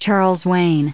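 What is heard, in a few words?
"Charles's", US English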